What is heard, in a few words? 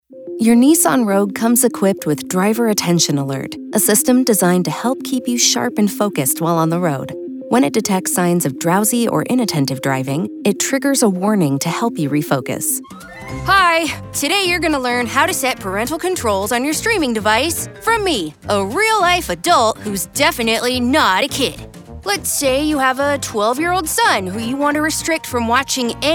eLearning narration, female voice over